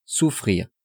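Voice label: "souffrir", French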